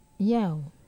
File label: "yau", Wamesa